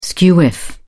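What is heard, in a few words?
"skew-whiff", British English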